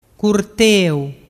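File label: "curteu", Vernazzese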